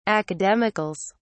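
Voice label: "academicals", English, British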